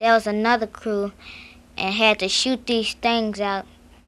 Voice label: Conversation with 10 year old black female Washington DC, american english dialect recordings